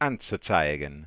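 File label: ready to display, German, Voice Sounds; Samsung SGH-i310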